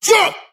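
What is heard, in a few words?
"huh", vocal sample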